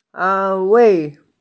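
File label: "anwei", Mandarin Chinese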